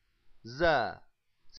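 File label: "zay", Arabic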